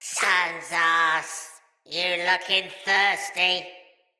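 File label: See Player Corrupted Sprouts, en; Dialog; Battle Barks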